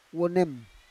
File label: "wonem", Roon